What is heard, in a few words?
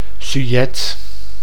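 "sujet", Dutch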